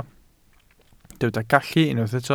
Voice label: "gallu", Welsh